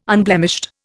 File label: "unblemished", Indian